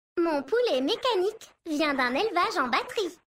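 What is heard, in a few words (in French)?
French Femme Blague; Mecagnome; Poulet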